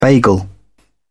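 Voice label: "bagel", British English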